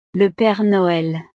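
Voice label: "le pere noel", French; navidad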